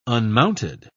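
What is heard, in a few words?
"unmounted", British English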